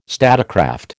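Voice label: "statecraft", American English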